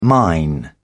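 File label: "mine", British English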